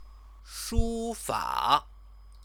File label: "shufa", Chinese